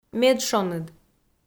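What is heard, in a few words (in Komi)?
"медшоныд", Komi, female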